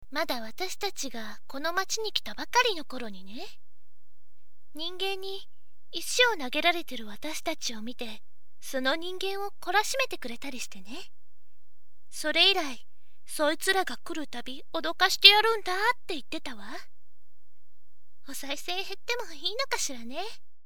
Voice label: voice onnna